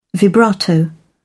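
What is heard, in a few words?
"vibrato", British English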